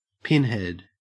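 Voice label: "pinhead", Australian English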